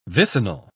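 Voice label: "vicinal", British English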